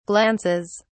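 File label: "glances", English, British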